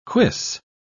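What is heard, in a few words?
"cuisse", British English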